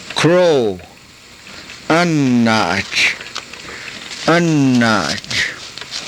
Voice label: "anaach", karuk